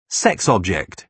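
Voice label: "sex object", British English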